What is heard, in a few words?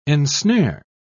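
"ensnare", English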